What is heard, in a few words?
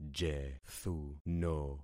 "jethuno", concat syllables; Affixing experiment